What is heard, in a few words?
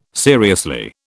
"seriously", British